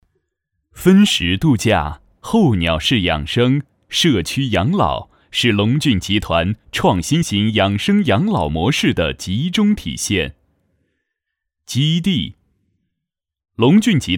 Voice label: 男-磁性地产专题; 龙骏集团

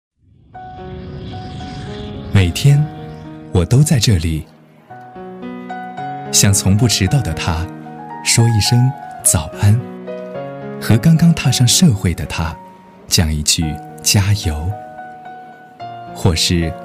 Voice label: 温情 清新, 地铁, 国语男声配音; 大气沉稳; 方正IT地铁

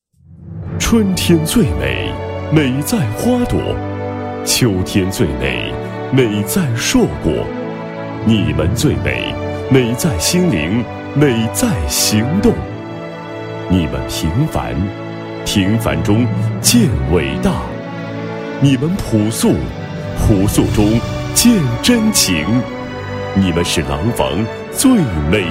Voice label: 男 爱心公益 宣传配音; 廊坊爱心公益组织宣传配音

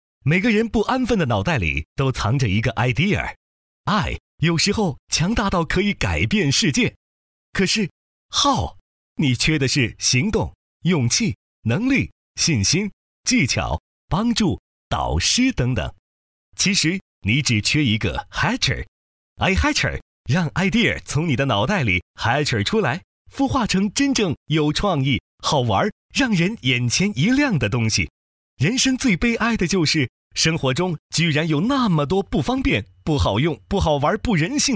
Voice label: 男 轻松类, 国语男声配音; ihatcher病毒片

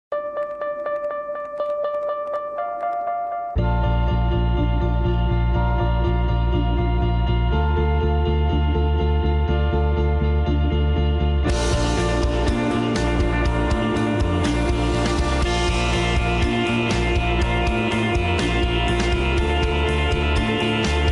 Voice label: 男; 芝华士18年猛料版; 央视

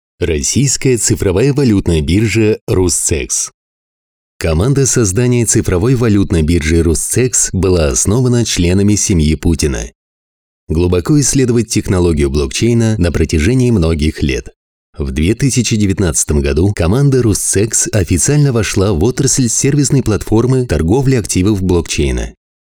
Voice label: 俄语男 数字货币交易所视频配音; 俄罗斯RUSCEX

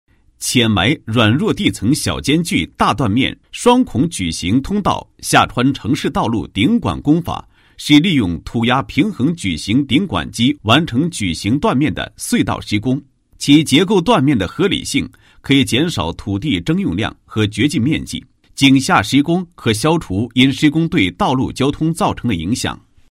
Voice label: 男 解说词; 下穿城市道路顶管工法

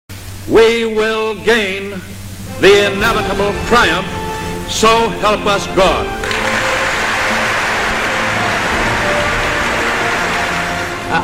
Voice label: 男 宣传片 商务 品牌 论坛; 中国品牌50人论坛

